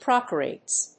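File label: "Procaryotes", English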